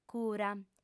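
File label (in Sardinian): "cura", female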